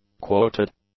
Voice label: "quoted", English; adjectives